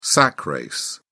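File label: "sack race", English, British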